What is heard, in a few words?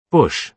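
"bush", British English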